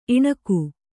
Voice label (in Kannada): "ಇಣುಕು", Kannada